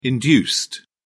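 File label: "induced", British English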